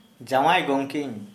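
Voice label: Santali, male, son in law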